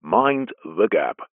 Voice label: train underground london mind the gap male english accent pa recorded